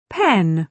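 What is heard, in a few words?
"pen", British English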